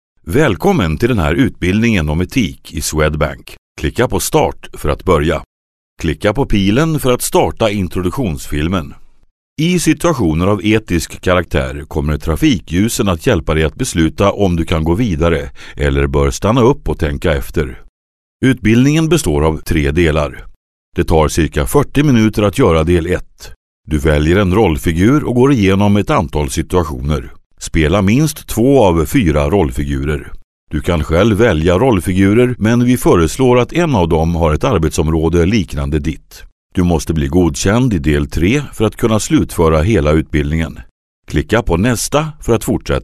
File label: E-learning showreel